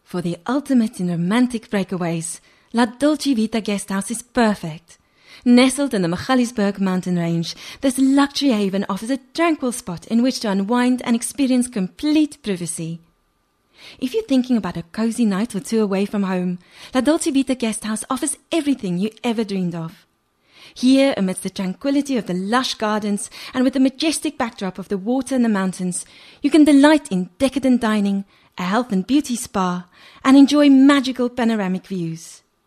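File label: Afrikaans English, male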